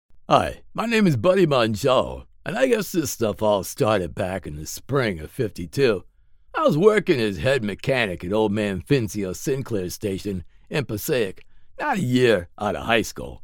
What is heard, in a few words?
AUDIOBOOK COMEDY